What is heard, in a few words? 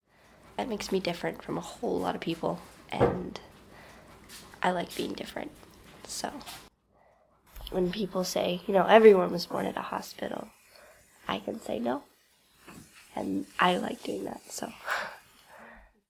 I like being different, noise removed